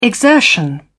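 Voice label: "exertion", British English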